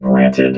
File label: "granted", robot